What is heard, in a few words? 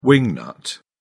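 "wing-nut", British English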